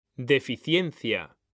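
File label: "DEFICIENCIA", Spanish; mekanta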